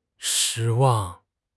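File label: "shi1 wang4", Mandarin Chinese; HSK; HSK4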